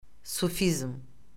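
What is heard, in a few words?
"sufizm", Azerbaijani; Orfoqrafiya Lüğəti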